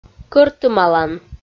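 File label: "кӧртымалан" Komi, female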